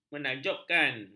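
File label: "menakjubkan", Malay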